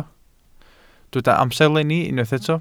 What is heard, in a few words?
"amserlenni", Welsh